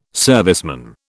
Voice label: "serviceman", British